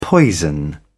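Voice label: "poison", British English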